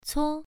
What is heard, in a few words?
"cuo1", pronunciation